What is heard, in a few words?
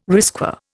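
"risqué", Indian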